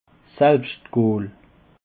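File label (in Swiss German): "selbschtgool", Alsatian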